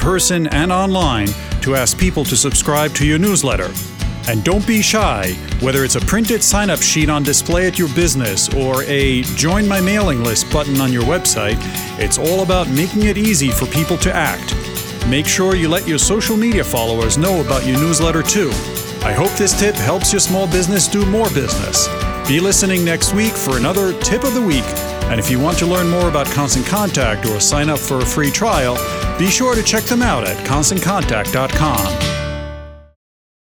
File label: Email Marketing Radio Ad; Solutions for Growth; Grow email list